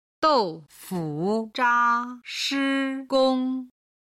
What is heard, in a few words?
"doufuzha shigong", Chinese